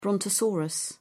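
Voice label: "brontosaurus", British English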